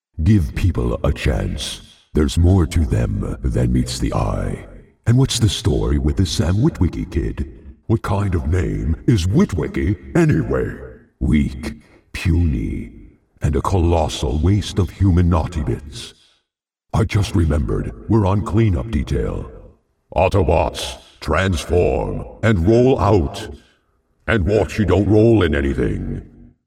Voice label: Character Voice; Optimus